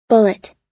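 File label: "bullet", US English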